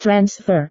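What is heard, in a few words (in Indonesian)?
"transfer", Indonesian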